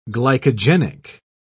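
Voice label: "glycogenic", English, British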